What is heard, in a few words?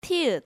"Tieut", Korean